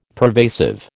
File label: "pervasive", American